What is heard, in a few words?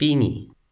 "pini", Toki Pona